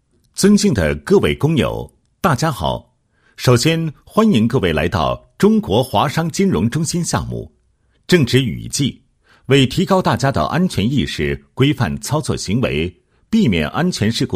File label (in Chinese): 男 解说词; 雨季施工注意事项